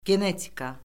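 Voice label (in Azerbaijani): "genetika", Azerbaijani; Orfoqrafiya Lüğəti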